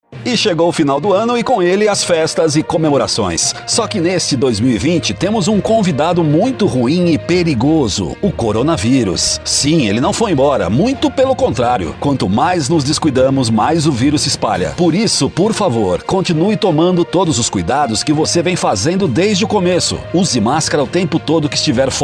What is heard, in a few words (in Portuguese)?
spot alerta; coronavirus; Linha Alerta; Radio